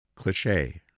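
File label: "cliché", spelling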